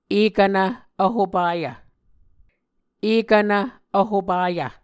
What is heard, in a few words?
"ekvnv vhopayv", muscogee